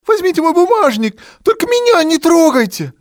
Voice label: take wallet, male; Soldier Of Fortune II Double Helix; XBOX; civ